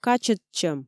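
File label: "качӧдчӧм", Komi, female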